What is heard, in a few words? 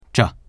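"zhe", Mandarin Chinese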